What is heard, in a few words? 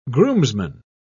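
"groomsman", British English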